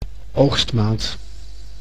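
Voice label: "oogstmaand", Dutch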